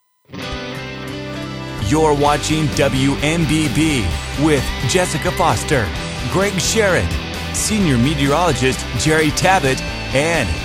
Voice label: TV PROMO